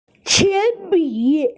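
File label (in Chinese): "qian1bi3", Chinese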